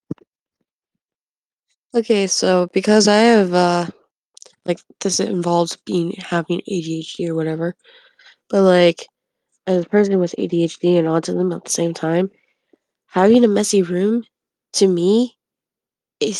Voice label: voice message